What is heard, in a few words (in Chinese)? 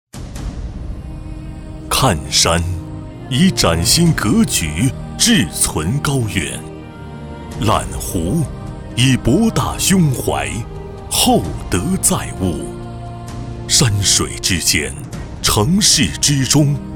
男 宣传片 大气商业; 华侨城物业宣传片